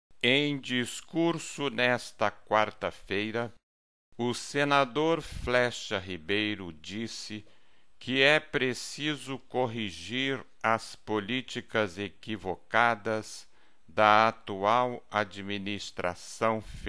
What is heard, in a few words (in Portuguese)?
em ritmo lento; em discurso nesta quarta feira